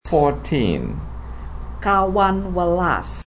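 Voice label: "Kawan welas" Javanese; 14 fourteen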